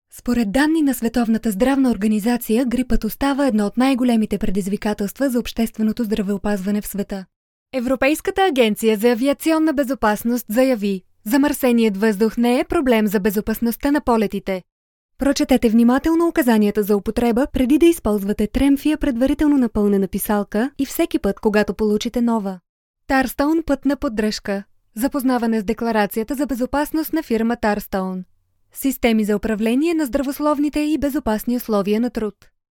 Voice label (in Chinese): 保加利亚语女自然讲述; 保加利亚语女1-自然讲述